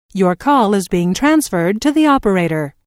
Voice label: Your Call Is Being Transferred To The Operator, Telephone prompt